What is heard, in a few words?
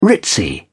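"ritzy", British English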